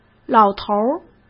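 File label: "老头儿", Mandarin Chinese; chinese-flashcards; hsk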